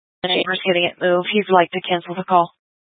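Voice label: Police Dispatch, Scanner audio; Police 1 Dispatch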